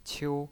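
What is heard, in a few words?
"qiu" first tone, pinyin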